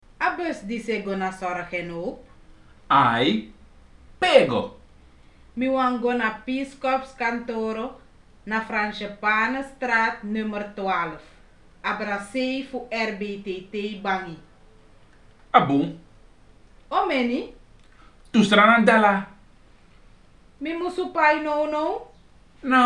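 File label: dialogue, Sranan; PeaceCorps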